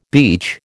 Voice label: "beach", American

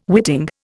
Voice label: "witting", Indian

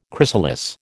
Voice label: "chrysalis", American

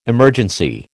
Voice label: "emergency", American

